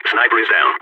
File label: sniper killed, balkan